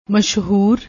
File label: "mashoor", Punjabi; ਮਸ਼ਹੂਰ